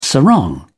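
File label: "sarong", British English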